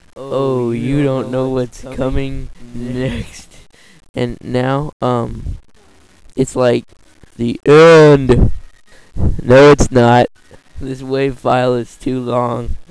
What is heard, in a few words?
backward echo, speech